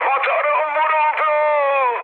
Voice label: damaged engine, mood high, speech tur; War Thunder